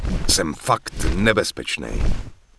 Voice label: Human Male Follow Me, Human Vocal Male; wowpreklad; czwow